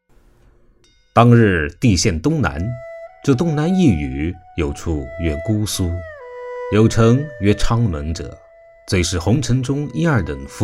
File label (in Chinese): 旁白; 红楼梦